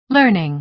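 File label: "learning", American English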